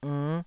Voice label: "ernn5", taiwanese syllables